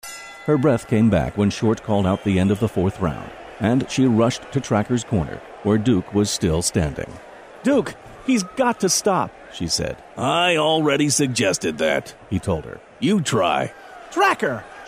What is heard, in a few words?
english american audiobooks